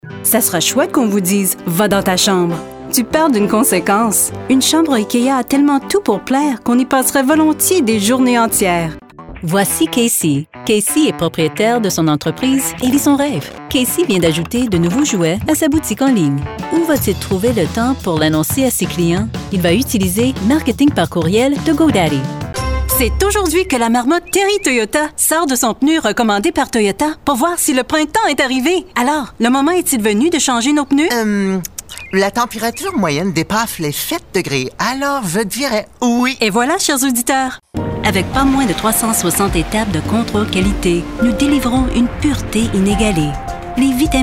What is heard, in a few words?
commercial French; TaDa